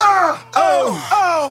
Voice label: "uh oh uh", Voice; ooh ahh; uh um